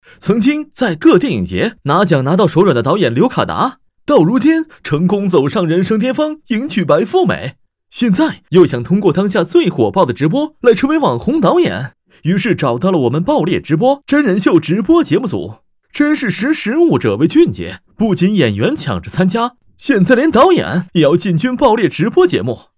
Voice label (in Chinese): 男; 飞碟说; 爆裂之旅